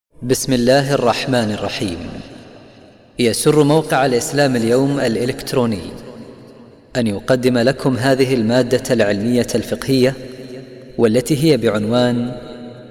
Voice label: Arabic